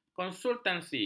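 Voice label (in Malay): "konsultasi", Malay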